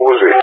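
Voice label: "Uzi", English speech; Allied Flight; CallSign; DCS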